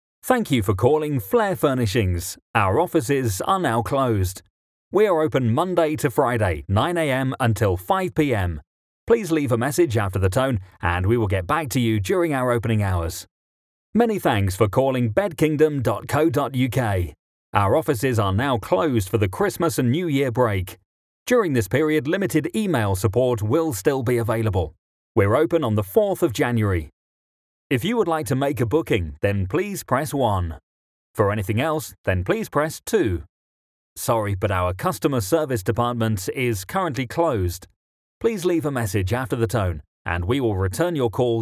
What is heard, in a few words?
IVR